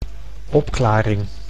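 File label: "opklaring", Dutch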